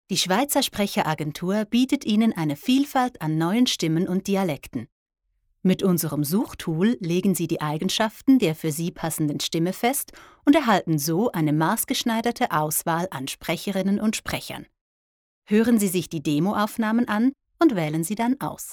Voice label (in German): Erklärvideo